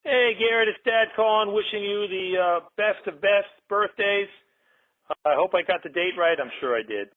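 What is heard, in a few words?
dad voicemail birthday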